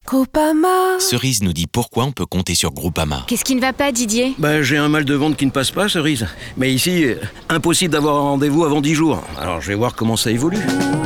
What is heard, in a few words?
radio; groupama sante teleconsultation 2025; Groupama devoile sa nouvelle identite de marque